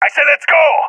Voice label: request move, balkan; balkan epic